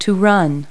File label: "run", verb; Multi-Lingual Talking Picture Dictionary